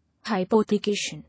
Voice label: "Hypothecation", English